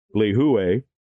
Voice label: "LIHUE", pronunciation, Hawaii

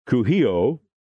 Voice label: "KUHIO", pronunciation, Hawaii

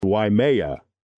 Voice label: "WAIMEA", pronunciation, Hawaii

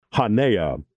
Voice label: "HAENA", pronunciation, Hawaii

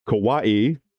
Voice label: "KAUAI", pronunciation, Hawaii

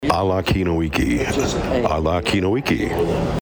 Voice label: Ala Kinoiki, pronunciation hawaii

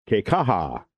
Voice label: "KEKAHA", pronunciation, Hawaii

